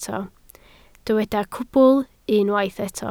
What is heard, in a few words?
"cwbl", Welsh